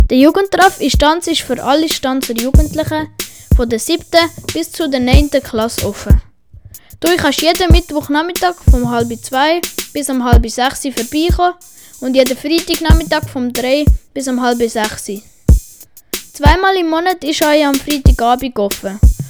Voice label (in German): werbung; jugi